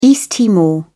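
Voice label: "east timor", British English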